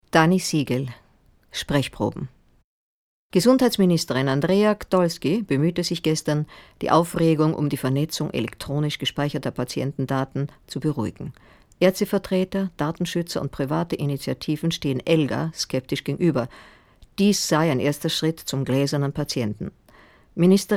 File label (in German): nachrichtentext